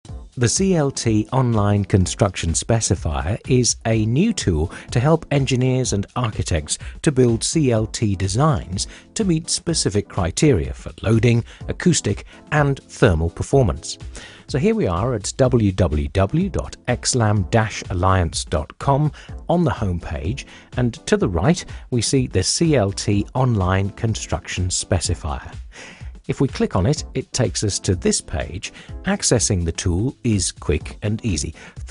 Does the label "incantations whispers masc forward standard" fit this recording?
No